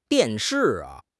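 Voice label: "dian4 shi4", Chinese; HSK1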